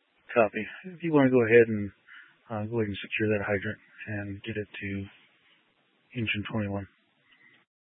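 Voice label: Incident Tactical, scanner audio recording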